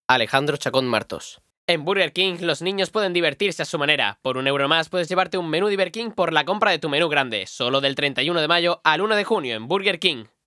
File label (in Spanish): Publicidad